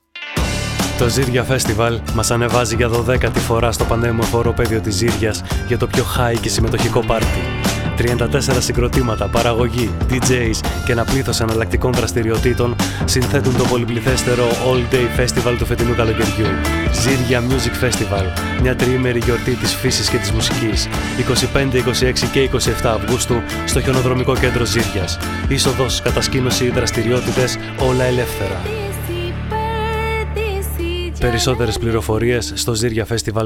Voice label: Radio Spot; Ziria Music Festival 2022